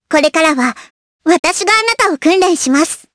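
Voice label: vox, voices, Japanese; Hanus; kingsraid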